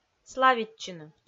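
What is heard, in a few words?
"славитчыны", Komi-Zyrian, female; kpv audio dict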